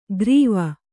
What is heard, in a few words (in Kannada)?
"ಗ್ರೀವ", Kannada